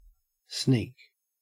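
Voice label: "sneak", Australian English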